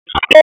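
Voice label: Police Spec Ops Tac, radio; wake; p25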